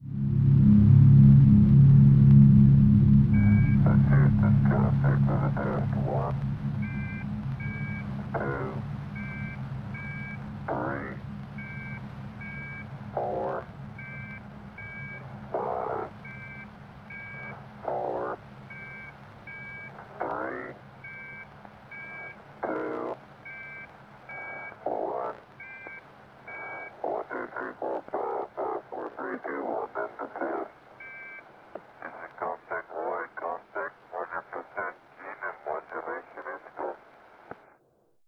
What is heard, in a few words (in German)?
Funkspruch Ende